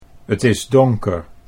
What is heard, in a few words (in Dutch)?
"het is donker", Dutch